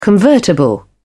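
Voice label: "convertible", British English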